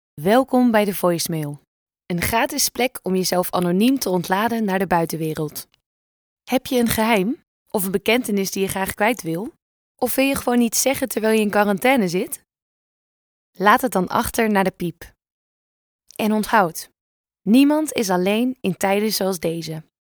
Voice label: Warm vriendelijk zacht Nederlands

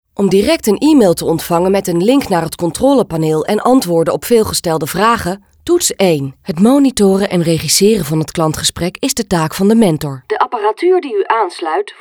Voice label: stemdemo IVR

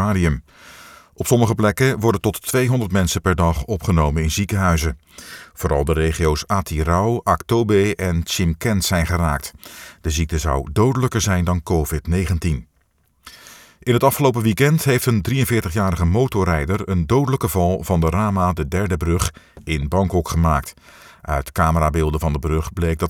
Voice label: nieuws

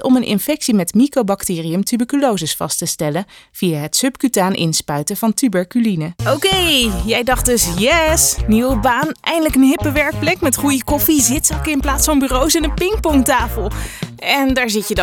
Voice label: Informatieve voice over

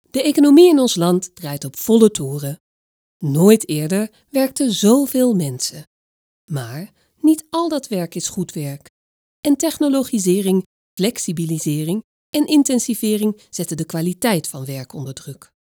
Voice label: Corporate werk

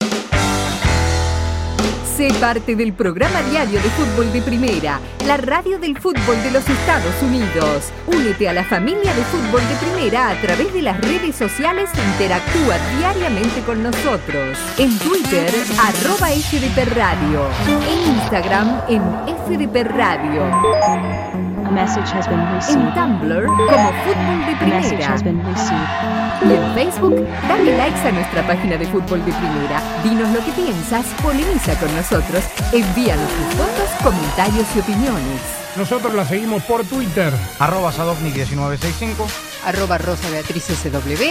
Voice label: spaans

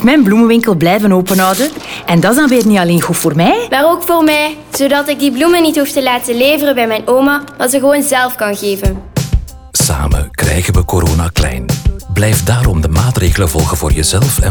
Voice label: Vlaams Radio NL Winkel; VLAK Fase 2

